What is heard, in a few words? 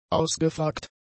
"ausgefragt", German; adjectives